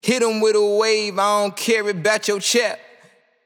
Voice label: Phrase Wet Wave 145 BPM, Vocal; Vocal Phrases; Cymatics; Euphoria Vocal Sample Pack